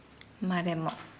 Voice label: "drown", Nukuoro